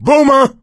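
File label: warn boomer, voice, male; Left 4 Dead 2; coach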